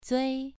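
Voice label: "zui2", Chinese pronunciation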